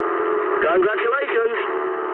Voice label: eng player killed enemy mood med, speech eng